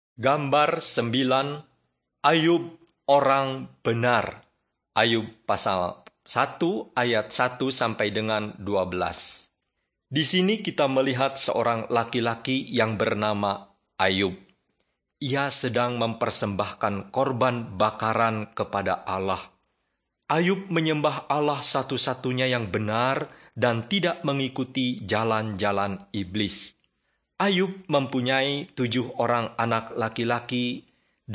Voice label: bahasa indonesia; beginning with god